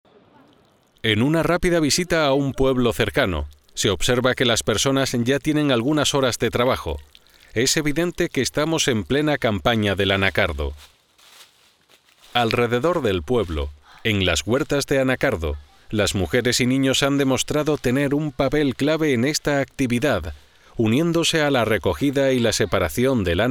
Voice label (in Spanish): narrador documental